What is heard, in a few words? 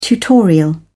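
"tutorial", English, British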